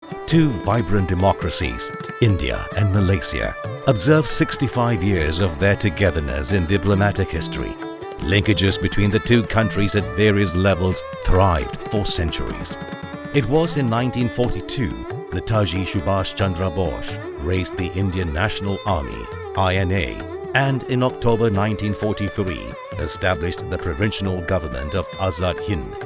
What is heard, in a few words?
malay documentaries